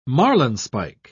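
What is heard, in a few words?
"marlinespike", British English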